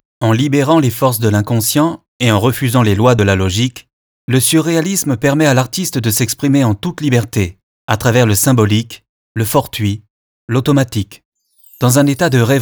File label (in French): narration textes avec musique